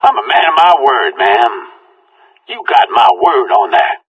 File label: conversation; Left 4 Dead 2